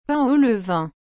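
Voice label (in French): "pain au levain", French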